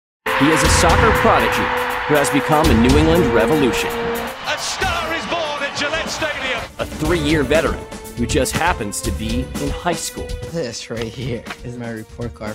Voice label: narration sample